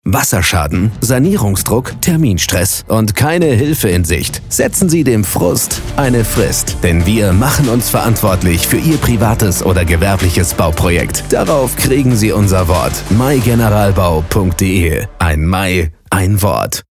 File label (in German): Radiospot